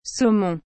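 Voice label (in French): "saumon", French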